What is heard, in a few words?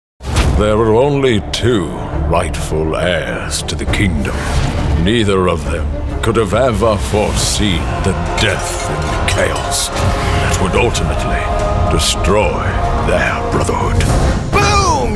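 animation male games, Voice Over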